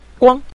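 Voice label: "guang", Mandarin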